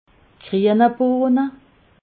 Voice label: "grienibohne", alsace

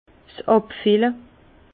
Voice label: "abfille", Bas Rhin; vin; embouteillage

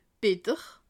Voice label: "bitter", alsace

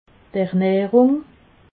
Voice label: "ernahrung", alsace

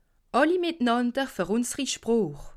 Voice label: "mitnander", alsace

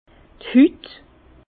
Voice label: "hut", Bas Rhin; vin; peau pellicule

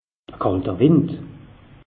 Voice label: "kalter wind", alsace; meteo

